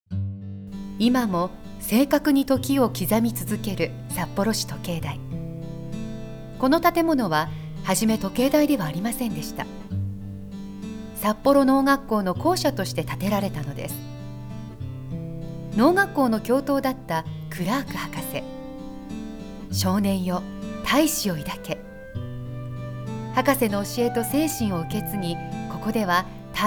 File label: voice narration